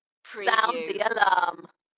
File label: UK female, Sound the alarm, Dalekness; beanstalk audio